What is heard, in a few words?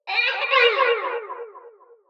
cry